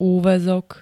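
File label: "úväzok", Slovak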